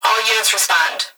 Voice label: Emergency Human Female Voice Police Dispatch All Units Respond; emergency effects